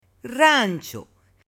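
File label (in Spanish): "rancho", Spanish, female; LUGARES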